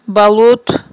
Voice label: "балут", aghul

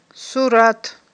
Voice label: "сурат", aghul

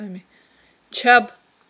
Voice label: "чаб", aghul

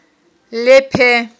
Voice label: "лепе", Aghul

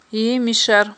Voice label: "емишар", aghul

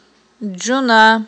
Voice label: "джуна", aghul

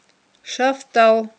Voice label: "шафттал", aghul

